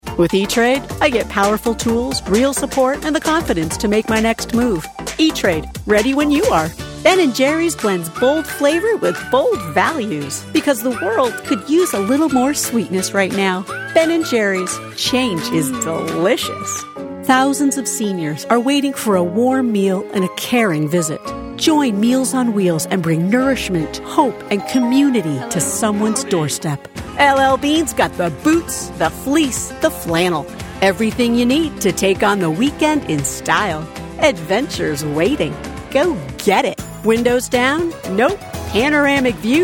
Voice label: Commercial, Female voice; a voice that is warm, friendly and engaging